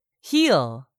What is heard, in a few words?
"heal", English; 3000 words